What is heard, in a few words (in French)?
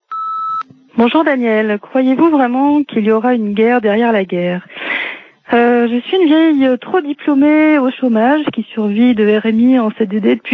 Chomage repondeur